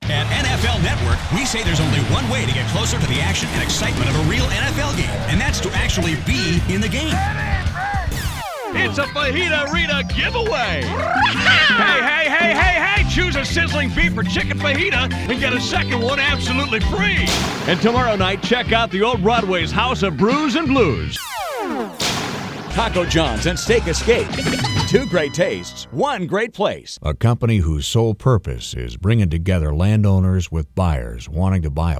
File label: commercial